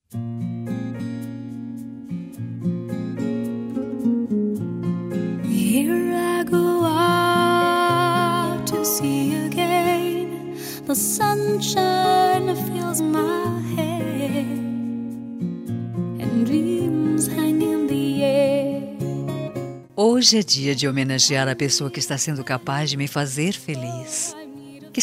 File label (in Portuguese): aniversario PARA QUEM SE GOSTA FEM, telemensagem aniversario diversos